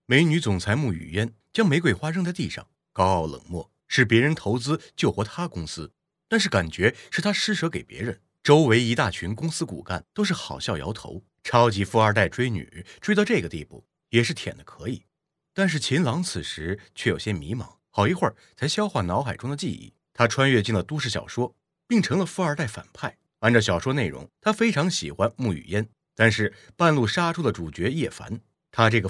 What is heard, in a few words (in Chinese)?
旁白